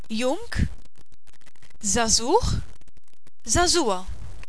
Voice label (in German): jung, Maltesisch